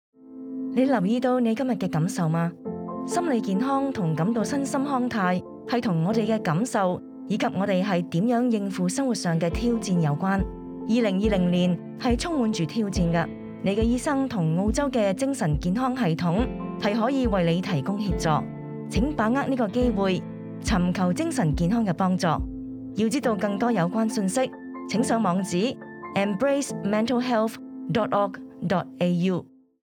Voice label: Cantonese; Mental Health Awareness

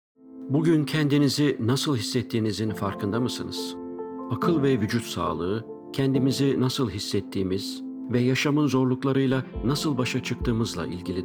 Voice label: Mental Health Awareness - Turkish

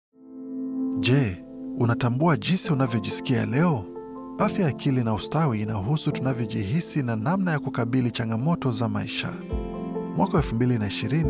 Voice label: Mental Health Awareness - Swahili

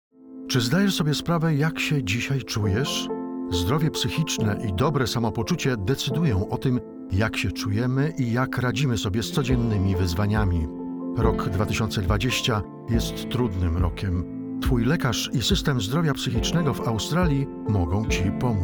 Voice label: Mental Health Awareness - Polish

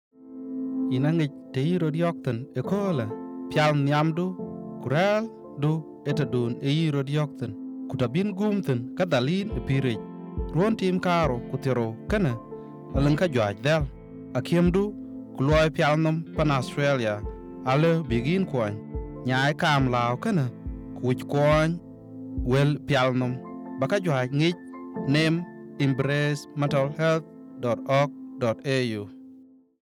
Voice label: Mental Health Awareness - Dinka

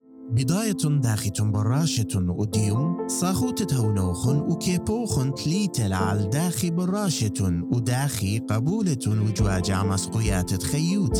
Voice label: Mental Health Awareness - Assyrian

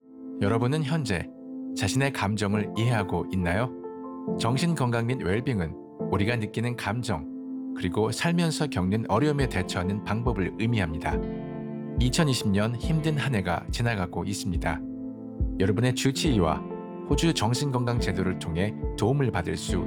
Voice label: Mental Health Awareness - Korean

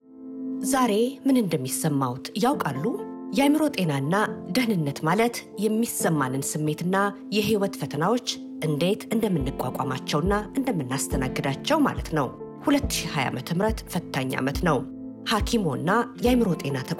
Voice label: Mental Health Awareness - Amharic

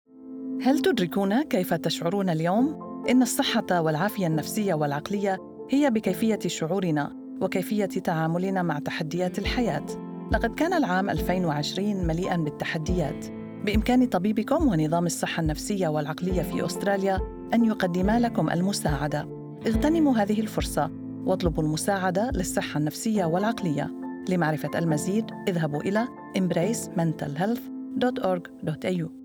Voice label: Mental Health Awareness - Arabic

